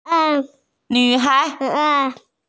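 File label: "nv3hai2" Mandarin Chinese, female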